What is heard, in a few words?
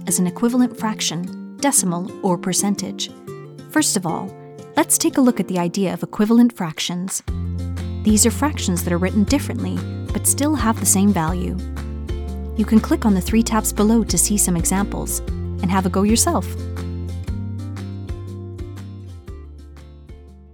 E-Learning